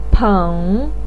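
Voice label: "peng", Mandarin pinyin, third tone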